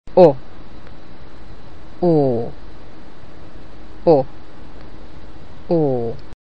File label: Hungarian Vowels Comparison O